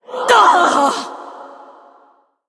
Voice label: Female Spirit Death